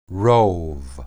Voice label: "rob", Hebrew